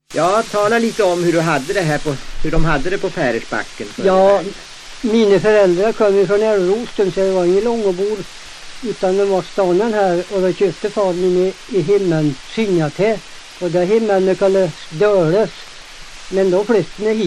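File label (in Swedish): Hede Härjedalen, dialekter; Folkminnen; Svenska sagor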